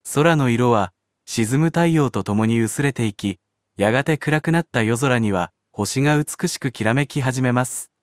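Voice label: Japanese, Japan; iOS Sounds; hiro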